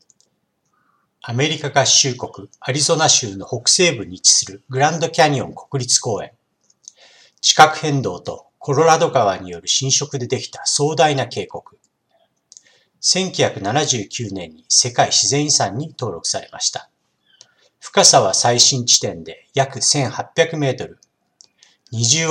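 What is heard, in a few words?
Japanese, general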